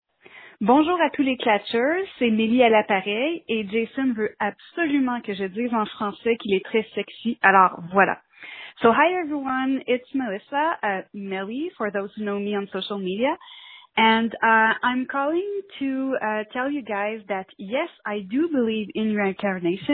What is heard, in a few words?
Voicemail